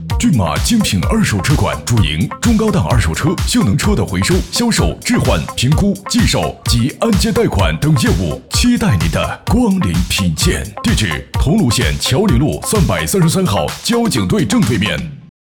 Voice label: 广告 二手车; 骏马二手车

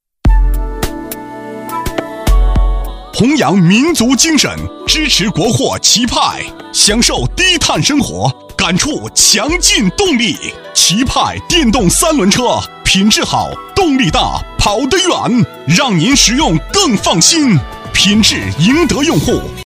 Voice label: 男 促销 电动车; 促销品牌广告

